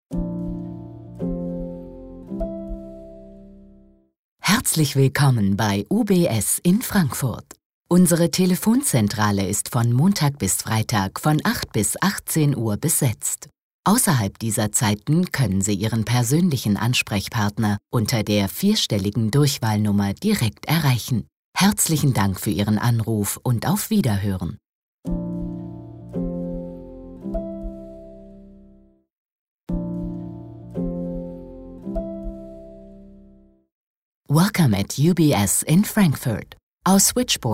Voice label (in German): ansage de englisch; UBS